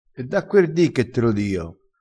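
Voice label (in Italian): "da quer di", dialettale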